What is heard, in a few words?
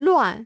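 "乱", Chinese; 中国語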